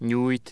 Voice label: palatal nasal initial, hungarian